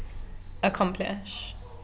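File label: "accomplish", British English, female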